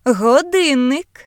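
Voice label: "clock", British English; gcompris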